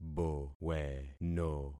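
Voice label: "boweno", concatenated syllables; Affixing experiment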